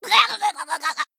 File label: Female Pitcher Death